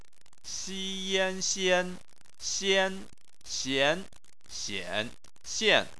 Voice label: "xian", chinese

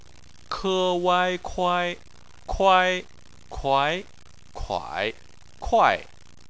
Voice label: "kuai", chinese

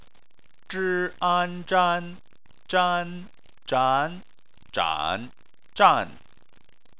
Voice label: "zhan", chinese

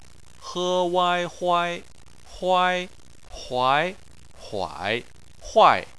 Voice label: "huai", chinese

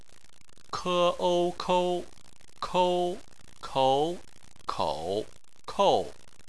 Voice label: "kou", chinese

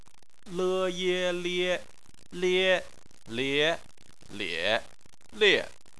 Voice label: "lie", chinese

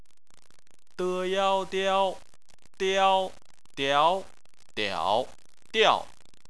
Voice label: "diao", chinese

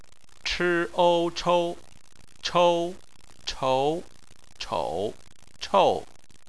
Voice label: "chou", Chinese

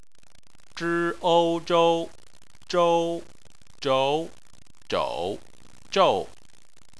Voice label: "zhou", Chinese

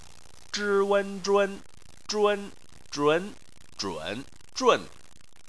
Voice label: "zhun", Chinese

